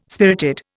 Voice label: "spirited", Indian